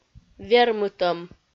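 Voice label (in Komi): "вермытӧм", Komi, female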